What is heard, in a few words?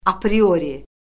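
"apriori", Azerbaijani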